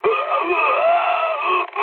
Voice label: damaged pilot, mood high, speech; War Thunder

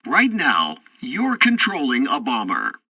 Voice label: tutorial bomber intro, english; War Thunder

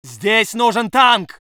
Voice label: voice sov infantry

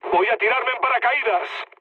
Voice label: bailout, mood low, speech; War Thunder

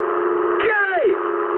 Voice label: eng enemy detected mood high, speech eng

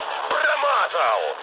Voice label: bomb failure, mood med, speech ru; War Thunder

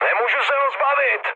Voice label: aircraft damaged, speech cze, mood high; War Thunder

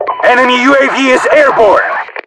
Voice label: enemy uav air, RU; mpvoice

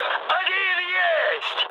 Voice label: player killed enemy, mood high, speech ru

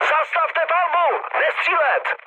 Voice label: player friendly fire, speech cze, mood high

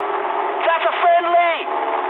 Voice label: eng player friendly fire mood high, speech eng; War Thunder

